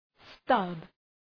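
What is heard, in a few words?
"stub", English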